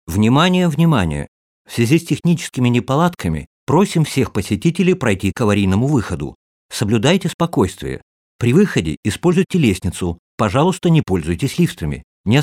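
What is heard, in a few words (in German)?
hotel alarmansage russian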